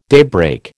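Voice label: "daybreak", American English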